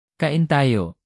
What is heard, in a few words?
"kain tayo", tagalog; basic tagalog for travel